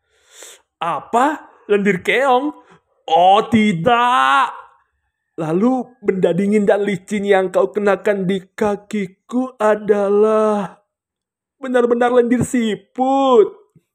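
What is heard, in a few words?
character Indonesian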